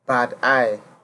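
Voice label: "badeye", Nigerian Pidgin, male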